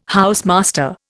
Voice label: "housemaster", Indian

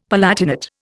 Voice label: "palatinate", Indian; Dictionary

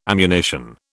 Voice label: "ammunition", British

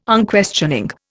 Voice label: "Unquestioning", Indian

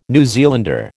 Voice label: "New Zealander", American

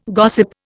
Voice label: "gossip", Indian

